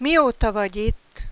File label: "miota", Hungarian